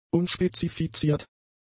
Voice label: "unspezifiziert", German; adjectives

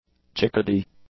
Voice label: "chickadee", English; nouns

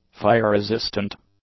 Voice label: "fire-resistant", english; adjectives

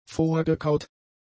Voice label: "vorgekaut", German; adjectives

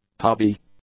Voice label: "tubby", English; adjectives

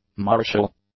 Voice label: "martial", English; adjectives

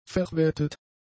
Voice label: "verwettet", German; adjectives

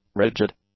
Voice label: "rigid", English; adjectives

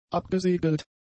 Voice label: "abgesegelt", German; adjectives

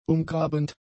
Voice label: "umgrabend", German; adjectives

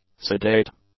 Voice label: "sedate", English; adjectives

